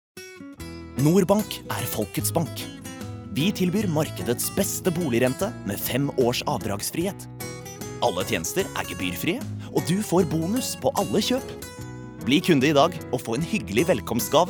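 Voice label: Norwegian commercial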